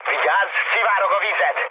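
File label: Hungarian damaged water mood med, speech; War Thunder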